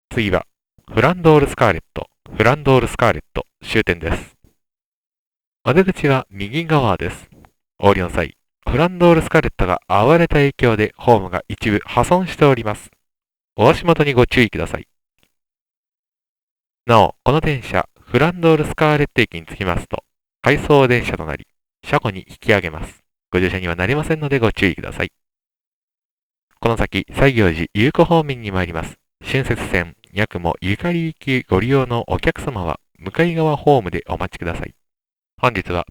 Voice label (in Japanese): 終点アナウンス; 紅霧線; サンクリ42